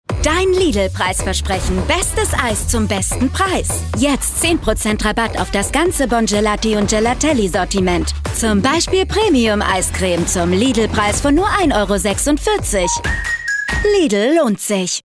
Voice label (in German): tv spot preis versprechen; Sprecher in Köln; dein lidl preis-versprechen